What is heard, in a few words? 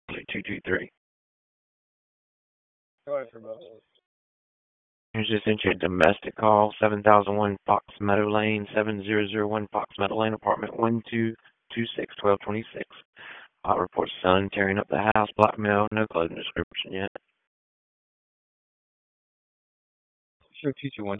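Raleigh Police Northeast District Dispatch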